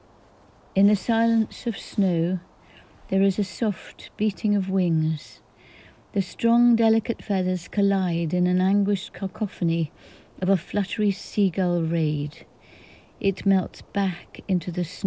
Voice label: audio voiceover